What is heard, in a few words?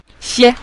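"xie", Mandarin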